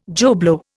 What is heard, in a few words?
"Joe Blow", Indian